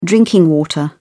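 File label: "drinking water", British English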